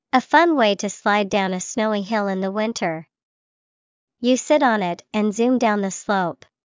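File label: sled, English vocabulary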